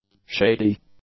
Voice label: "shady", English; adjectives